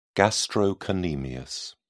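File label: "gastrocnemius", British English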